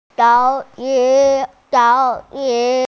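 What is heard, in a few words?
"lao3 shi1", Mandarin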